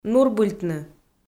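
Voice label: "нурбыльтны", Komi-Zyrian, female; audio dict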